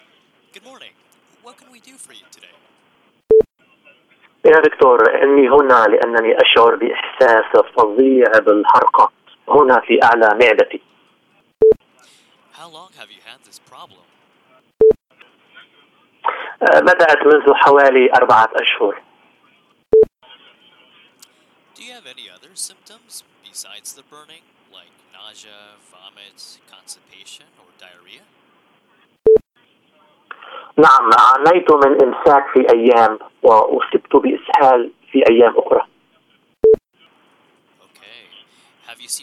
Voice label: Medical Colonoscopy Procedure Arabic without interpretation